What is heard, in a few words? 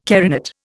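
"carinate", Indian English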